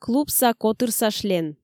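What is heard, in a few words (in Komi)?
"клубса котырса шлен", Komi, female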